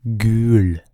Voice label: "Gul", Norwegian

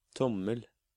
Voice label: "Tommel", Norwegian

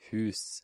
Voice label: "Hus", Norwegian

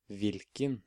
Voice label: "Hvilken", Norwegian

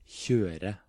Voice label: "Kjøre", Norwegian

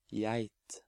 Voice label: "Geit", Norwegian

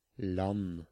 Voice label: "Land", Norwegian

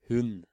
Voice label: "Hund", Norwegian

